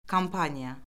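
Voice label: "kampaniya", Azerbaijani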